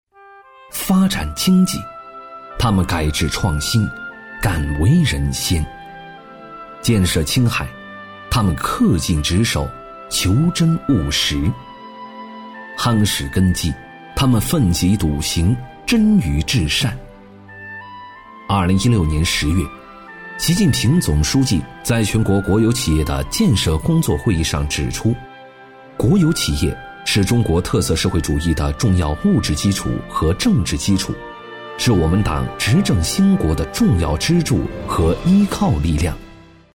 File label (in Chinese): 男 党政专题 大气; 党旗之下